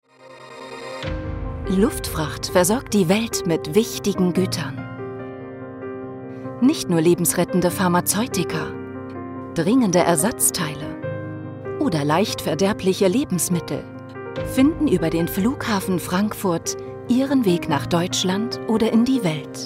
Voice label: german narration

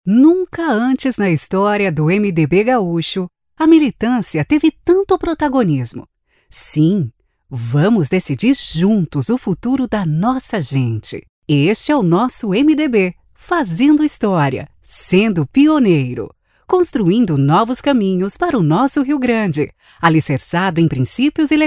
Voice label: portuguese brazilian political ads

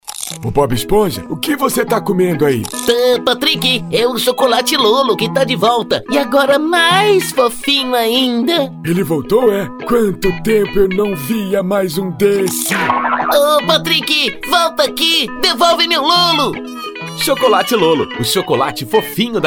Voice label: portuguese brazilian animation

